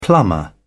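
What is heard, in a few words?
"plumber", British English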